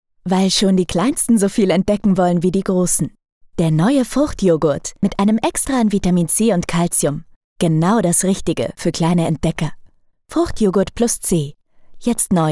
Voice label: Werbung Yoghurt

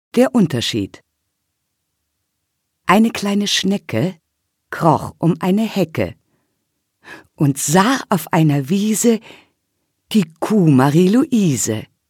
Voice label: erzaehlung

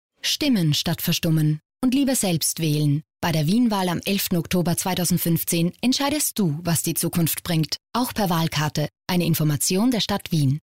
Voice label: Off Werbung; wienwahl; LOFT VOICES

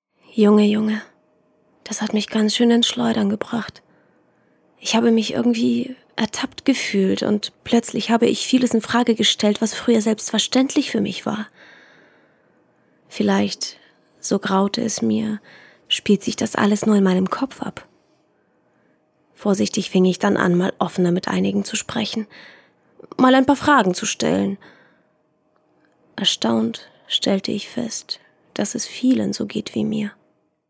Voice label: hörbuch; LOFT VOICES

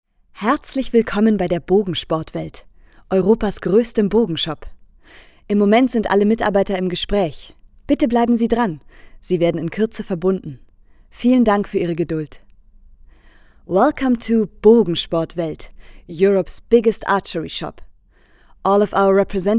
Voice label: TELEFONANSAGE; LOFT VOICES

